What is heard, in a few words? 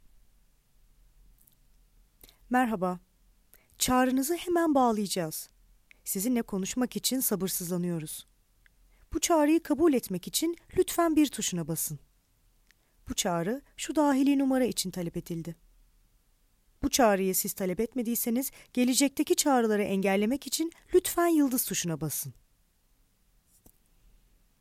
female, Turkish compilation